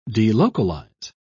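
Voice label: "delocalize", British English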